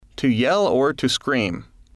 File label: to yell scream